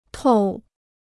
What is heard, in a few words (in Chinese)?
"透", Chinese